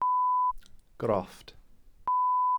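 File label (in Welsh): "grofft", Welsh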